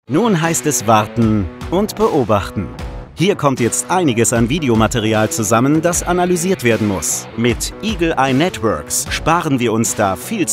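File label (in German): Imagefilm sachlich cool werblich kühl Detektei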